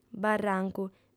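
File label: "barrancu", female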